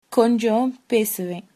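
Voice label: yes a little, Tamil, female; கொஞ்ச0 பேசுவே; konjã pesuvẽ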